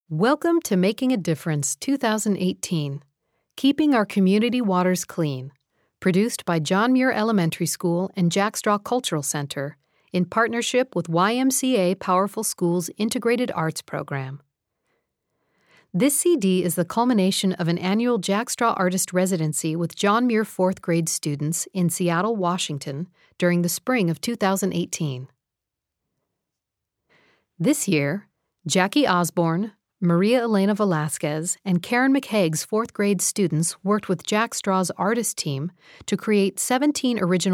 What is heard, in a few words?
Opening Narration